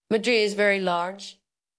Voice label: Voice Acting es